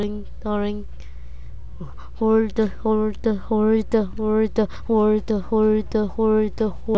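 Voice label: "hold", spoken word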